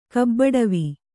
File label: "ಕಬ್ಬಡವಿ", Kannada